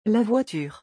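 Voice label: "la voiture", French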